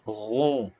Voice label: short voyels arabic alphabet